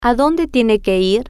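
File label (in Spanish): Adonde Tiene Que Ir, spanish, female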